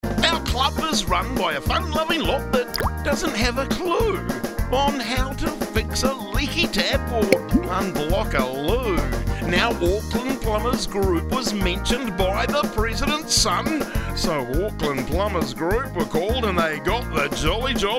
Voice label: Radio advert; Auckland Plumbers Group